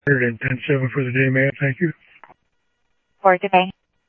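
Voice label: Sheriff West County, scanner audio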